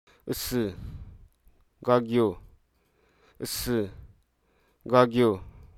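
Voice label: Hruso Aka; cranny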